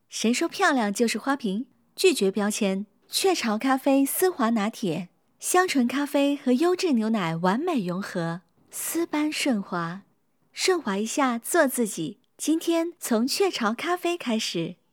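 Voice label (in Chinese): 国语 女声 国语广告 积极向上 亲切甜美; 国语广告-雀巢咖啡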